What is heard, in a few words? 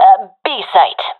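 loc b, professional female; vo; Counter Strike Model Pack; CS:GO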